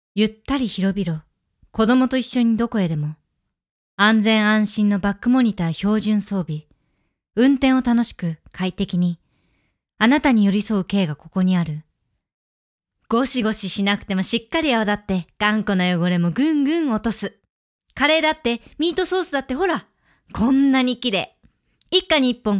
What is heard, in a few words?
Home Studio Read